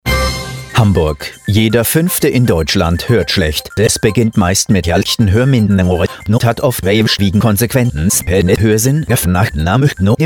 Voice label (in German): Funkspot